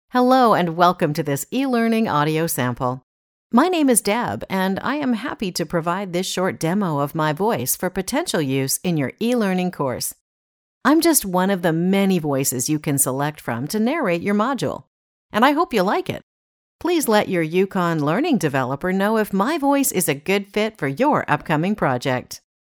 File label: Casual, voice talent